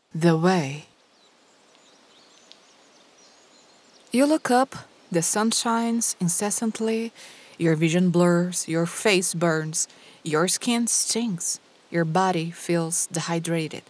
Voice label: audiobook tales english characters female brazilian portuguese; the way